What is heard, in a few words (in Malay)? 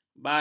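"balang", Malay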